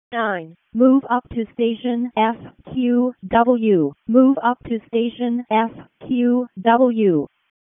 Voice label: EMS ALERT, p25